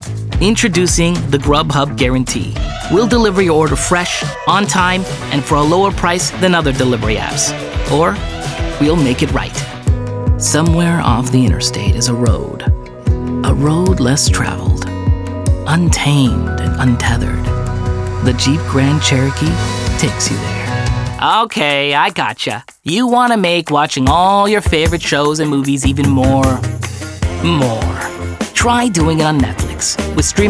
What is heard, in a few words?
Commercial